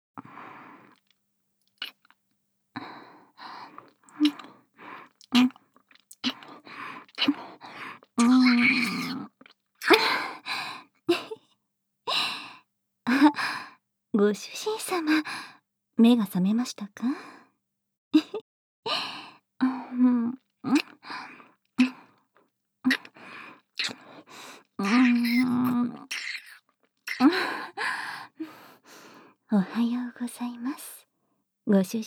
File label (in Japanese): 媚び媚び目覚ましボイス; アズサ